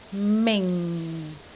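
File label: "ming4", Cantonese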